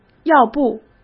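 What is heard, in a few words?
"要不", Mandarin Chinese; hsk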